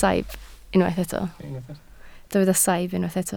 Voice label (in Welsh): "saib", Welsh